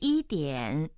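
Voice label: "yi1dian3", Chinese, female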